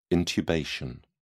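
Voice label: "intubation", English, uk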